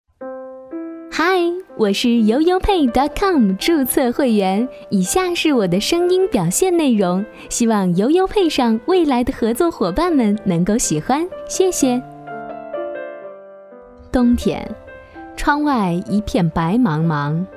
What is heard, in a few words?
girl teaching, Chinese; jiaocai